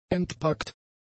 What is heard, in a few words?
"entpackt", German; adjectives